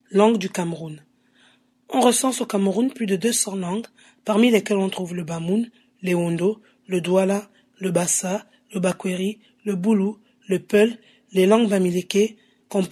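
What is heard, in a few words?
French African, Narrative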